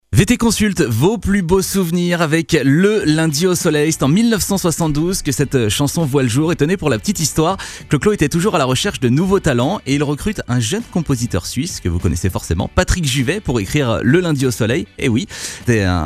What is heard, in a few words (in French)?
adulte; VT CONSULT